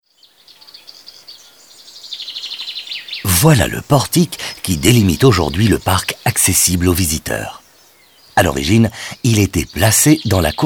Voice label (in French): audioguide fr enfant; modave